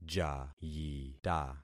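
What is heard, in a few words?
"jayita", concatenated syllables; Affixing experiment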